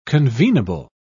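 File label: "convenable", British English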